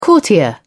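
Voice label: "courtier", British English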